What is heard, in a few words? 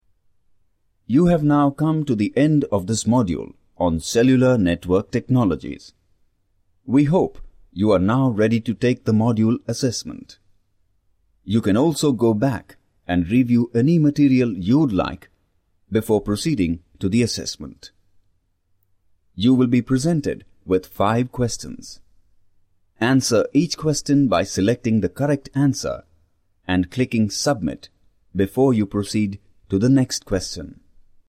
E-learning